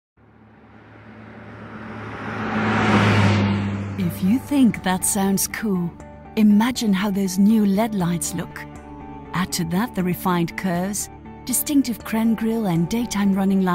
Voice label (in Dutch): Voice-over engels